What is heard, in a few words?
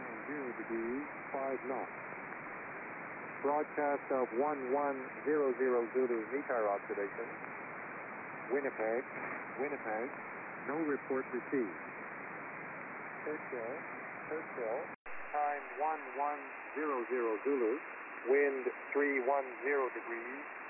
USB 2.4khz Gander Volmet; Belka-DSP; Belka DX